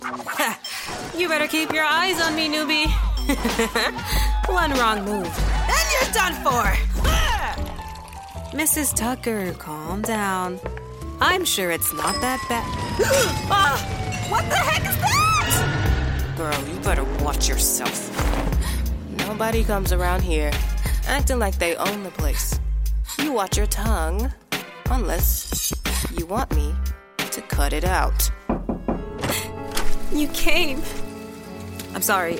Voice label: Character